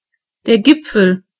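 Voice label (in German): "peak", German; gcompris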